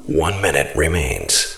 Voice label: 1 minute remains, announcer